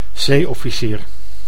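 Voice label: "zeeofficier", Dutch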